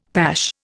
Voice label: "pash", Indian; Dictionary

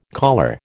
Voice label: "caller", American

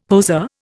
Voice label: "poseur", Indian; Dictionary

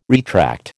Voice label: "retract", American

